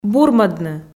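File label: "бурмӧдны", Komi, female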